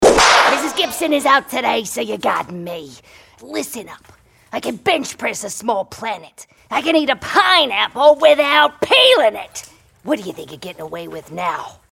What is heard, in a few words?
Animation SUBSTITUTE TEACHER